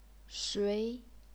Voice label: "shui2", chinese pinyin

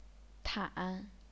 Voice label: "tan3", chinese pinyin

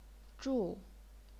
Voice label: "zhu4", chinese pinyin

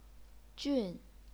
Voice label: "jun4", chinese pinyin

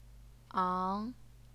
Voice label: "ang2", Chinese pinyin

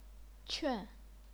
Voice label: "quan4", chinese pinyin

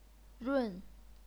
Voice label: "run4", chinese pinyin

